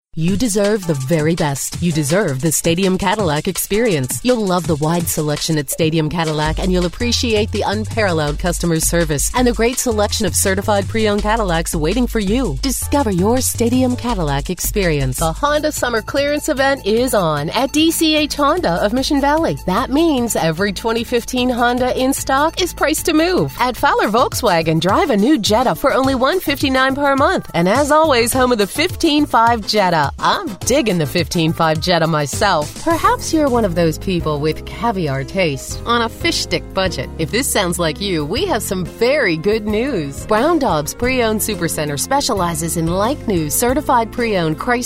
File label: Car Spots